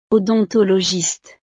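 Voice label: "odontologiste", French; profesiones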